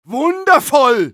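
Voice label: Medic positive vocalization, German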